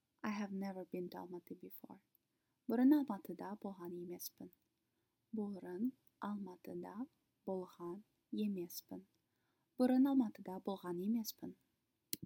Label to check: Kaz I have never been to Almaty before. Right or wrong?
Right